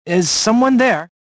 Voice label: suspicious, prisoner